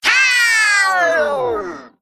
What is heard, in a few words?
Male Mech Death